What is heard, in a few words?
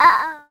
uh oh